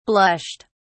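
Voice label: "blushed", British English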